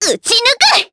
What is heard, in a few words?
Vox Skill, jp; Rodina; kings raid